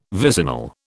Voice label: "vicinal", British